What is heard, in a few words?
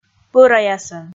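"пӧраясӧн", Komi, female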